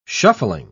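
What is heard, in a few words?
"shuffling", British English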